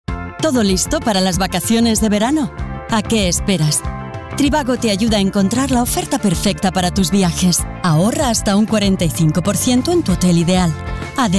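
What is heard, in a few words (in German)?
Spanish, Werbung Freundlich; Trivago; Fremdtake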